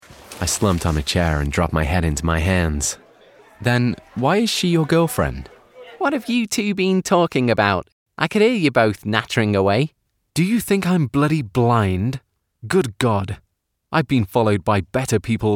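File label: characters, British Professional Male Voiceover; audiobook narrator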